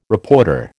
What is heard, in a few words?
"reporter", American